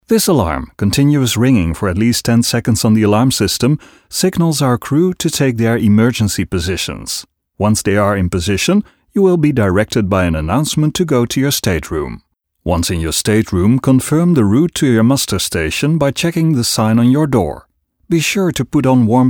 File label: nl english vo natural announcements